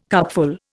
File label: "cupful", Indian